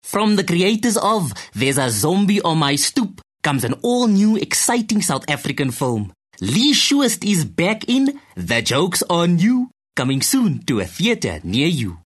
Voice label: English softsell Cape Coloured

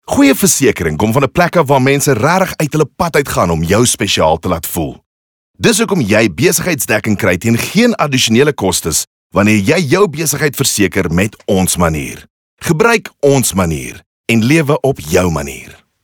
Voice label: Afrikaans Corporate

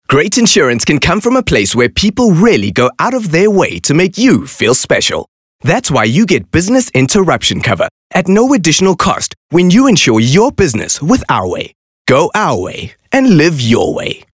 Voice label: English HardSell

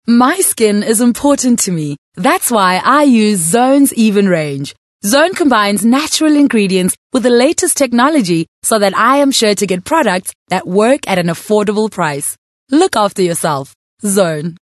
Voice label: English Bright

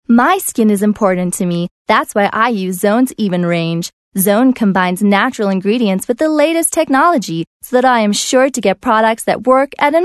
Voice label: English Bright American Accent

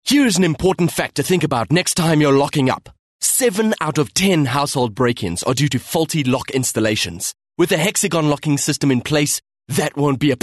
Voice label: English Hardsell

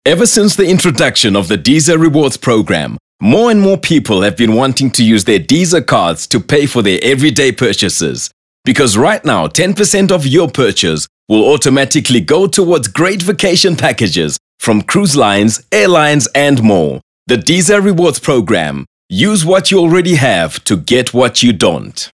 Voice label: English Corporate

